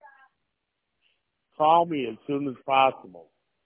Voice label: voicemail